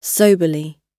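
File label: "soberly" British English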